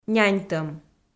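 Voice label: "няньтӧм", Komi, female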